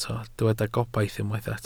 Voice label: "gobaith", Welsh